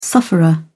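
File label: "sufferer", British English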